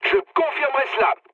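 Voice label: French player killed enemy mood high; War Thunder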